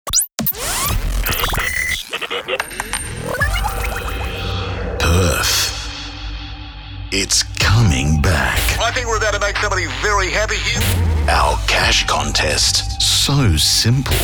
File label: Promos